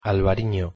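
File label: pronunciation es albariño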